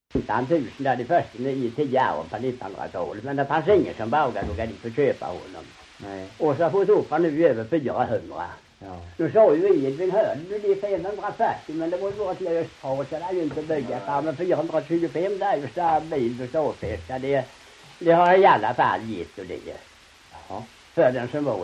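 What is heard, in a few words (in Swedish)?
Ramdala Blekinge, svenska sagor dialekter; Folkminnen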